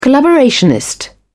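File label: "collaborationist", British English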